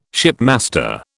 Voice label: "shipmaster", British